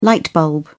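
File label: "light bulb", English, British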